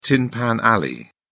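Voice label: "tin pan alley", British English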